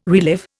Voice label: "relive", Indian

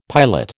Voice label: "piolet", American

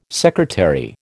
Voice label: "secretary", American

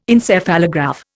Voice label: "Encephalograph", Indian

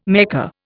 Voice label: "Mecca", Indian

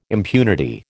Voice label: "impunity", American

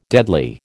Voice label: "deadly", American

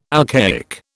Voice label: "alcaic", British

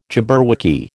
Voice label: "jabberwocky", American

